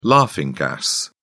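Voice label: "laughing gas", British English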